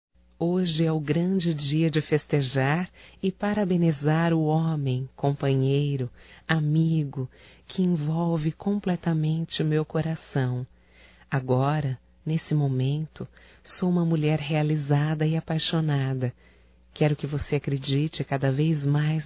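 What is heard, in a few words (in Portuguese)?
romântico female, Telemensagens Aniversarios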